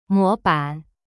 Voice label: "模版", Chinese; mo ban

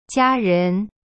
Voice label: "家人", Chinese; jia ren; cyberchinese

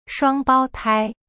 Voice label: "双胞胎" shuang bao tai, chinese

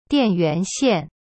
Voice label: "dian4yuan2xian4", Chinese; cyberchinese

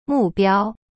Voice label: "目标", Chinese; target

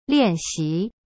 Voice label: "练习" lian xi, chinese; cyberchinese

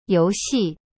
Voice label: "游戏", Chinese; you xi; cyberchinese

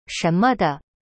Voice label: "什么的" shen me de, chinese; cyberchinese

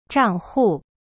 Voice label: "账户", Chinese; zhang hu

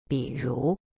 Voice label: "比如", Chinese

